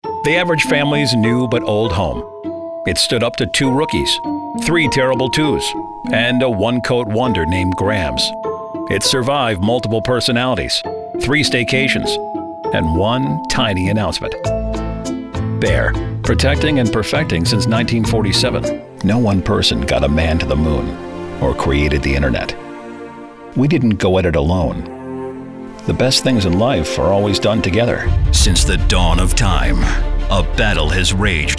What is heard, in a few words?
Commercial